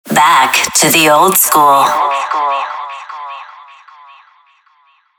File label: sweeper back to the old school female; killerintrosnet